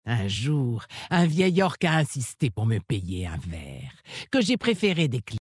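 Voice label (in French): French Femme Flirt; OrcMaghar; Verre; Les orcs mag’har sont la future race alliée de Battle for Azeroth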